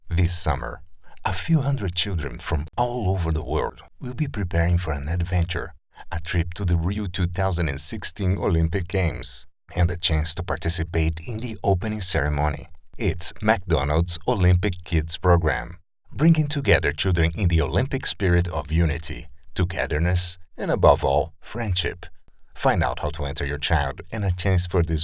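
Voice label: Brazilian Portuguese, male, English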